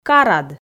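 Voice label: "карад", Komi, female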